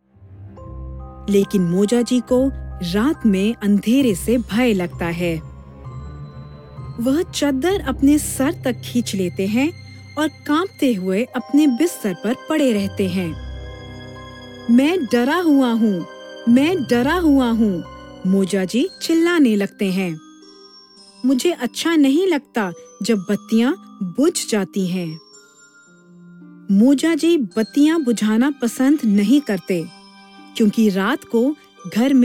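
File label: Hindi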